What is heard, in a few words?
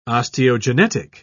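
"osteogenetic", British English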